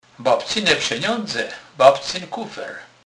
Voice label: babka, Kurpie; slownik